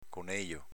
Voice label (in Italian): "conejo", dialetto veneto